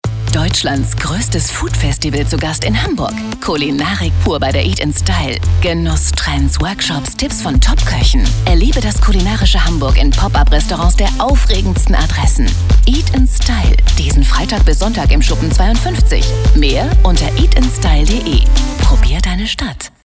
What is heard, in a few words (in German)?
werbespot hell